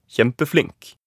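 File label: "kjempeflink", Norwegian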